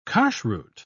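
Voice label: "kashruth", British English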